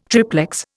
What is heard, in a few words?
"triplex", Indian